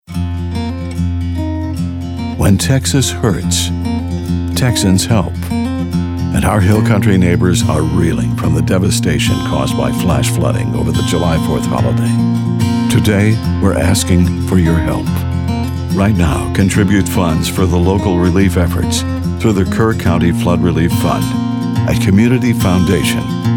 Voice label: radio; Relief Fund; KERR COUNTY FLOOD RELIEF; hill country flooding how can you help